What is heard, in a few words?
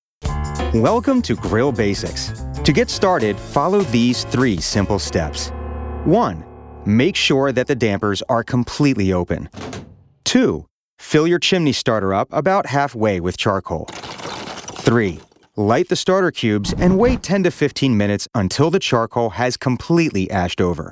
Narration Tutorial